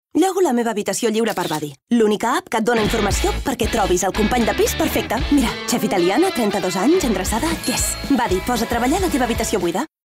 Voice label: female catalan rental comercial

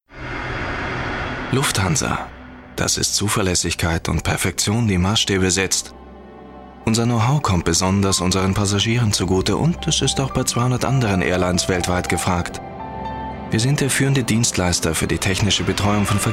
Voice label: male german commercial; ideasonora

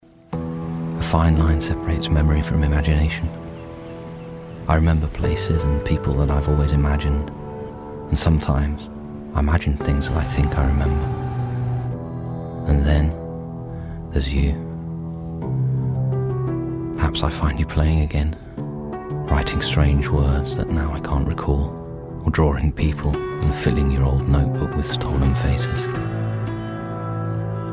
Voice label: male british english wild comercial; ideasonora

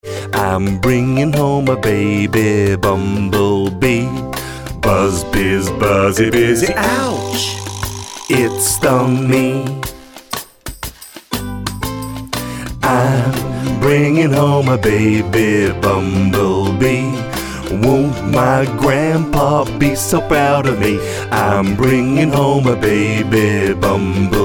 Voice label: male british english sing comercial

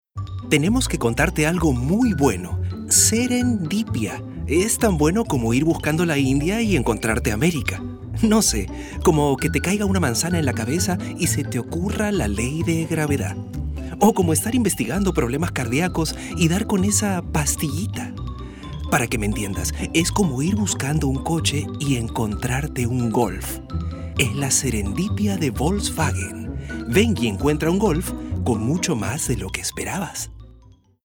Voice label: male latam peruvian comercial; ideasonora

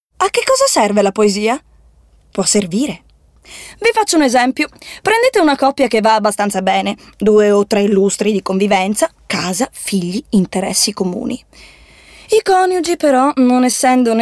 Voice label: female italian comercial; ideasonora

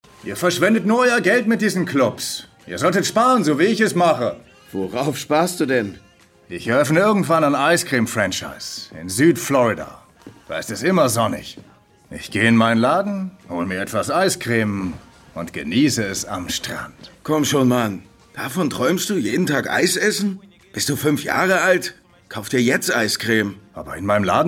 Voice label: male german dubbing

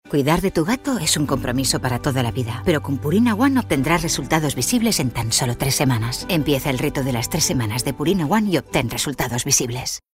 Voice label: female spanish pet food comercial; ideasonora

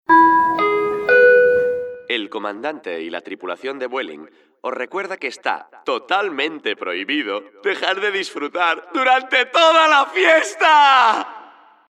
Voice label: male spanish airline comercial; ideasonora

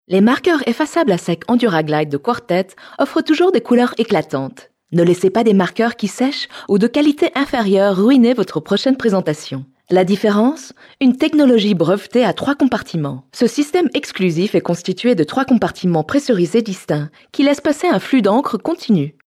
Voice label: female french tec comercial; ideasonora

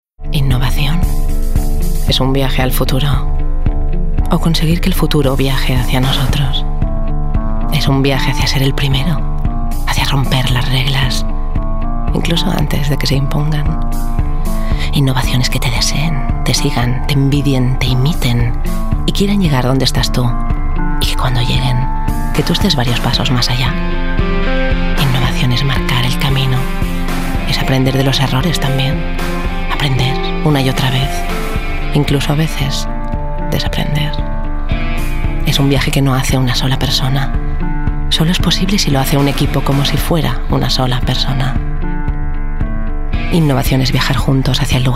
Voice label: female spanish car comercial; ideasonora

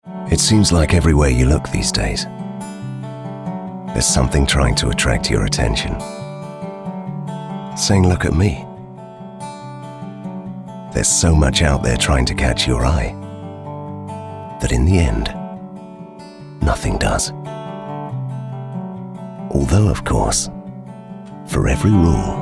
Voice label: male british english travel comercial

